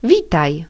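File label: welcome, Brazilian Portuguese; gcompris-data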